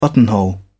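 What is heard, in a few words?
"buttonhole", English, British English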